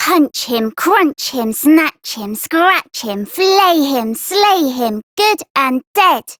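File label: Child Rhymes Anger Child Female; Duviri; Childrens Rhymes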